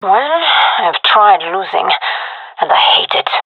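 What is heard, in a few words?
round lost, female; vo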